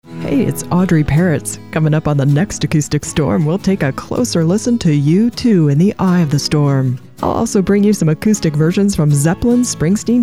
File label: PROMO; Acoustic Storm; USRN-FM; kkcl-am